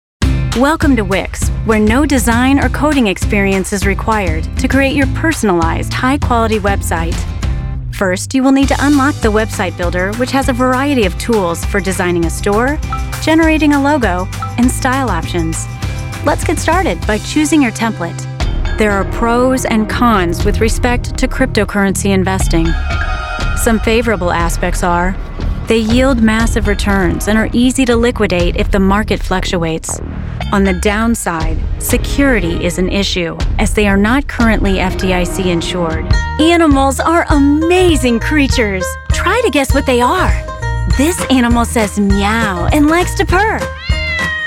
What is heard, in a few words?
narration